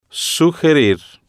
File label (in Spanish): "sugerir", Spanish